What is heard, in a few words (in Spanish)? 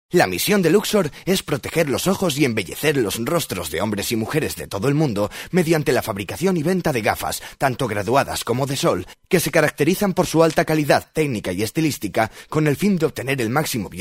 locutor